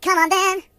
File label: "Come on then", voices; Singer